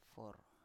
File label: "for", Biak